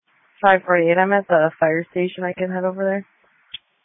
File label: Sheriff Dispatch